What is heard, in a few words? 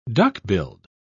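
"duck-billed", British English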